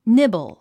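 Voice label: "nibble", English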